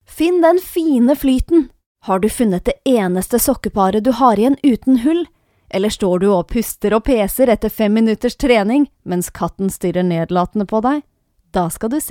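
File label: Norwegian, female, corporate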